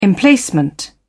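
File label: "emplacement", British English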